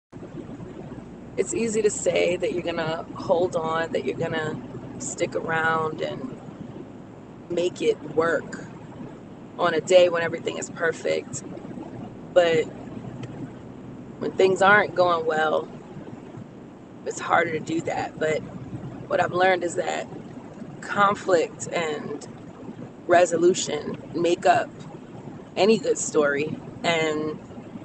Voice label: car chat